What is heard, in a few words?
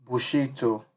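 "bushito", Nigerian Pidgin, male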